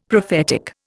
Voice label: "prophetic", Indian; Dictionary